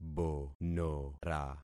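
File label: "bonora", concatenated syllables; Affixing experiment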